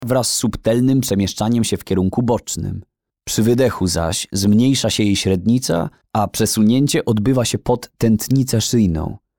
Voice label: audiobook science